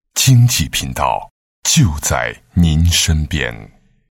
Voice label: 广告 片头片花 经济频道宣传; CCTV2

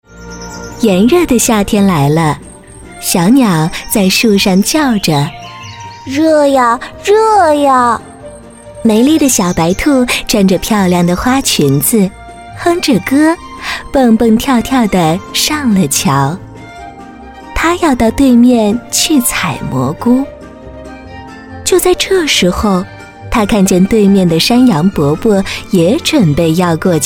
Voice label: 儿童故事 温柔; 女

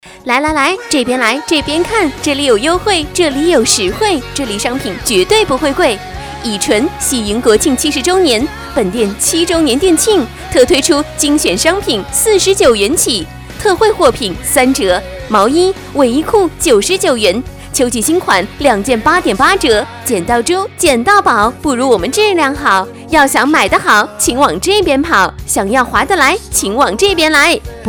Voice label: 女 广告 促销 服饰类 年轻; 以纯

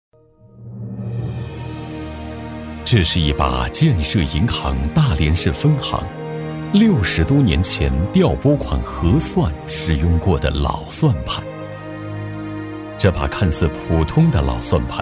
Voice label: 专题, 银行, 大气; 建设银行大连

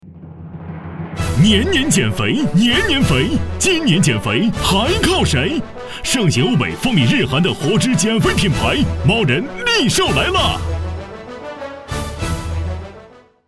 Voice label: 男国 广告 电购 激情叫卖

